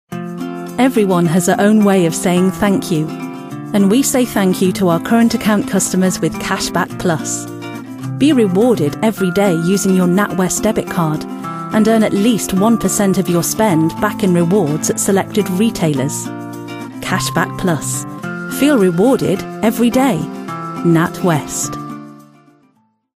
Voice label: Commercial; Natwest